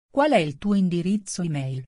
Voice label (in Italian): domanda informale